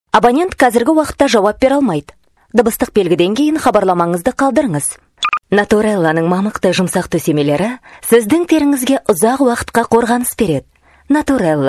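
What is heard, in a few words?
kazak female narration; voice demos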